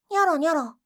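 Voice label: "nyoronyoro", Japanese; doubutsu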